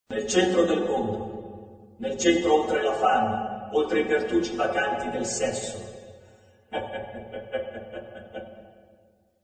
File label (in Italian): Voce fuori campo